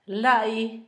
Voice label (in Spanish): mala mujer, Zapoteco, Asunción Ocotlan; Comestibles; INALI; Haspelmath